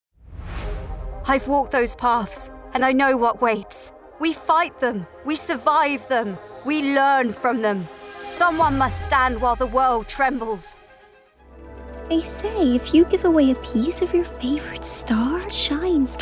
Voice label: Gaming Childrens, Birmingham, Cheeky, Warm, Relatable, Youthful, Black Country